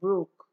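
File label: "broke", Nigerian Pidgin, female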